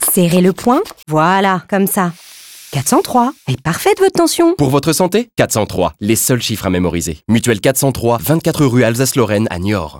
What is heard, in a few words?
advert (French); Mutuelle 403 – le médecin